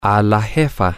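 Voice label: to the boss, spanish, male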